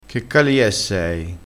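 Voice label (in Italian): "calia", dialettale crespinese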